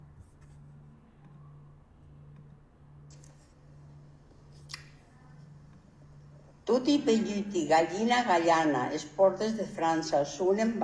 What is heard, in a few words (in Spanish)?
Juego aranes; Toti pelhuti